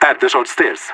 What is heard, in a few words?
short stairs, radio; LEET